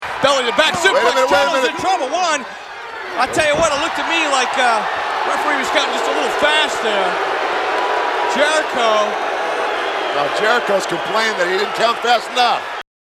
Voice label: slow count

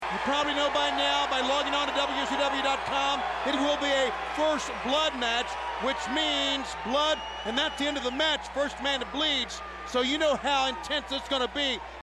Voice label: announcement; first blood

